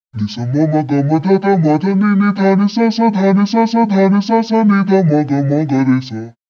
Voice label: voice, pitchshift -12